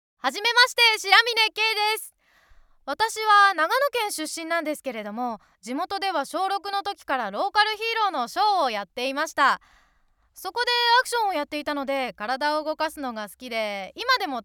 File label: free talk